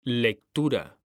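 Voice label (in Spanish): "lectura", Spanish, male; mekanta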